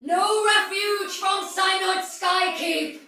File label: sight lost shout soldier female, battle barks; Dialog; Sinecure